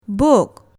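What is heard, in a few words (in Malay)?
"buk", Malay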